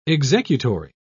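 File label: "executory", British English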